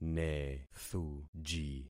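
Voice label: "nethuji", concatenated syllables; Affixing experiment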